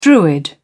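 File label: "druid", British English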